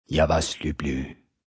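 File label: I Love You Russian M, male, Russian; Endearment, foreign; Talking Sound Effects; Sound Effects:Voice; VoiceBox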